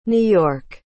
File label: "new york", English